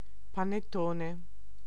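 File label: "pannettone", Italian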